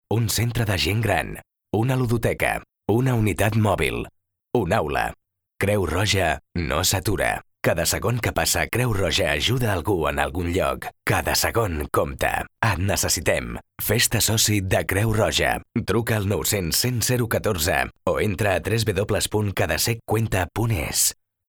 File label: male catalan hospital comercial; ideasonora